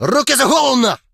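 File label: attack, Ukrainian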